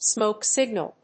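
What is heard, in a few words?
"Smoke signal", English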